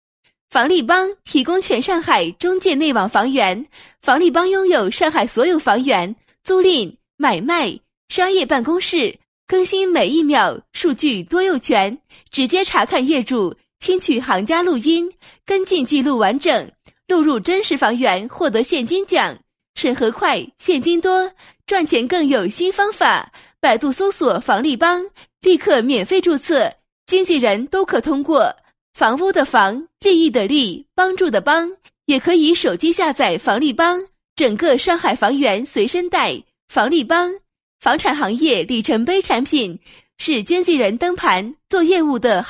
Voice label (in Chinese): 广告, 国语女声配音; 房利帮提供全上海中介内网房源